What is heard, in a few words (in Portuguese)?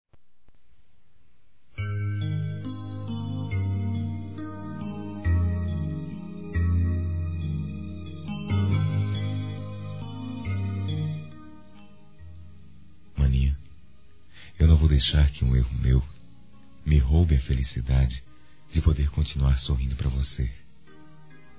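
desculpa minha irma, masculino; Desculpas; Telemensagens 2017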